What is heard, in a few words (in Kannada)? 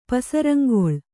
"ಪಸರಂಗೊಳ್", Kannada